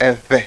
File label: bilabial voiced, ewe